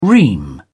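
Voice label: "ream", British English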